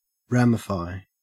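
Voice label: "ramify", Australian English; pronunciations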